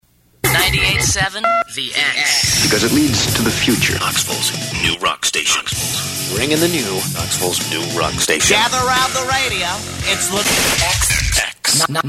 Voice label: Sweeper Imaging; demo